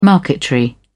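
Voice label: "marquetry", British English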